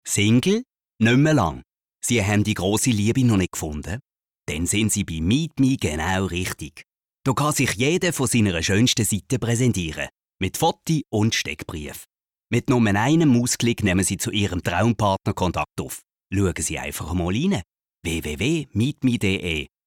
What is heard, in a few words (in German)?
dialekt